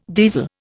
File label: "diesel", Indian